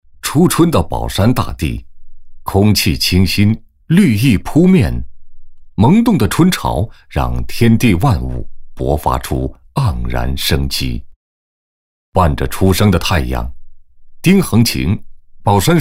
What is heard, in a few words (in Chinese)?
男; 党建纪实